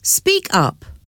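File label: "speak up", English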